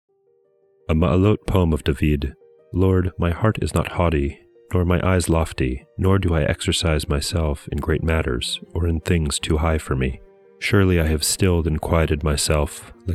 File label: poetry and prayer psalm english